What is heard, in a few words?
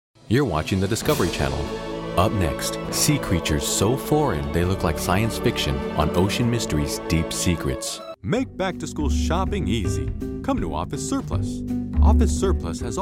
US Commercial